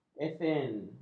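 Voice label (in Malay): "esen", Malay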